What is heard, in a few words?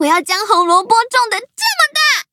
upgrade, zh-hant; Cookie Run